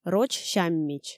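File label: Komi, female; роч сяммич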